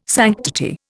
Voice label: "sanctity", Indian

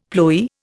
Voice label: "ploy", Indian; Dictionary

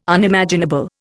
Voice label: "Unimaginable", Indian

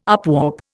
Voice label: "upwarp", Indian

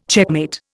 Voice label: "checkmate", Indian

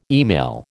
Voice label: "email", American

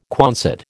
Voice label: "Quonset", American

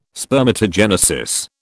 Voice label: "spermatogenesis", British

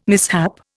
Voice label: "mishap", Indian

